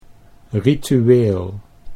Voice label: "ritueel", Dutch